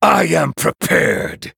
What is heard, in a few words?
Male Night Elf Greetings; MIRROR